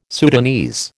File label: "Sudanese", American